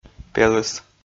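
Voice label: "пелыс", Komi, male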